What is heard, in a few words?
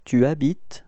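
"habiter tu", French; frans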